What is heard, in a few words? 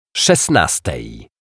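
"szesnastej", Polish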